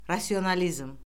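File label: "rasionalizm", Azerbaijani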